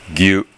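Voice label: "gyu", Japanese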